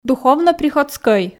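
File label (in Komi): "духовно-приходскӧй", Komi, female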